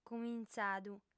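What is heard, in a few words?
"cumintzadu", female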